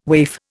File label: "waif", Indian